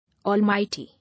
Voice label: "Almighty", English